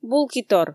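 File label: "булкитор", Komi, female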